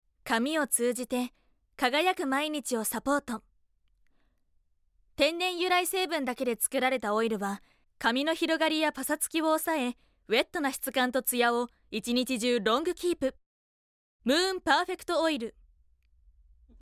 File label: Narration